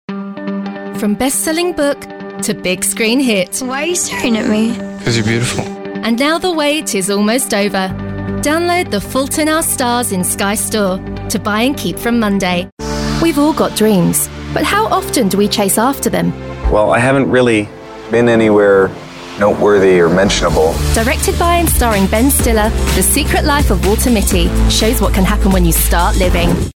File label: Promo style